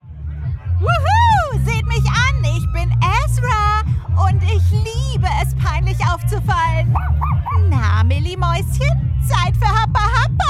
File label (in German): Trickstimme crazy girl